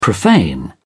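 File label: "profane", English, British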